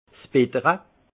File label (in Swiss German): "bittere", alsace; lamertume; vin